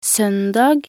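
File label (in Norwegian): "søndag", Norwegian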